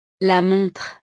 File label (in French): "la montre", frances; ropa